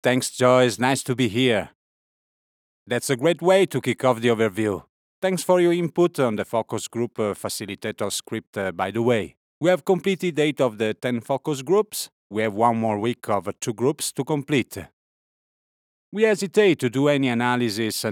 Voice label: ENG ITA accent BUSINESS PRESENTATIONS